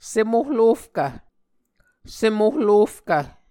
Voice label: "sem ohlofkv", Muscogee